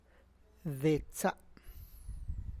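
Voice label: Tlacochahuaya Zapotec; my back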